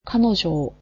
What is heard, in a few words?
"her", japanese